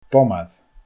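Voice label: "bomair", Scottish Gaelic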